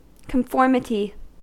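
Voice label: "conformity", American English; pronunciations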